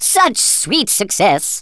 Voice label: "brilliant", Thespian; Worms Armageddon